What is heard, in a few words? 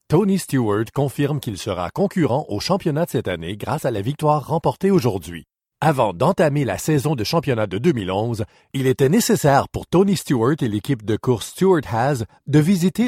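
Canadian French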